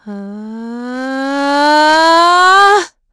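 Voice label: Vox Casting, voices heroes English; Isolet; kingsraid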